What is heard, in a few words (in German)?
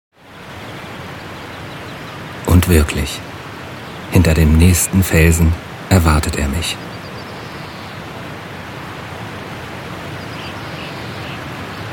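wasserfall meines lebens männlich; Orte der Entspannung; Phantasiereisen; Tiefenentspannung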